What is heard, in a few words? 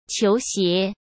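"球鞋", Mandarin Chinese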